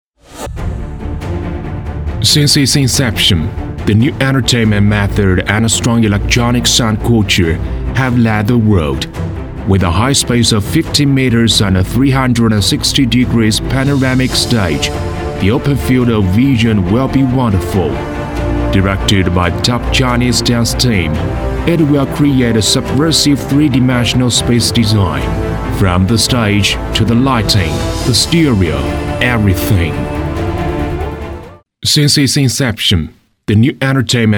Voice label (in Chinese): 男英 外语 国内英语; MEIYIGE